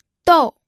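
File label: "dou", pinyin, fourth tone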